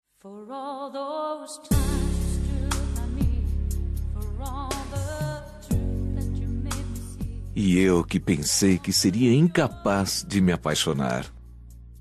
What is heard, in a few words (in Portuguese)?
telemensagem dia dos namorado voce e o meu grande amor voz masculina